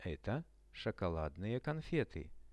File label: eto shokoladnye konfety, Russian; products